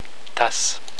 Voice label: "tasse", french; la/une tasse